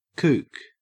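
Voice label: "kook", Australian English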